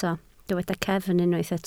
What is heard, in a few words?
"cefn", Welsh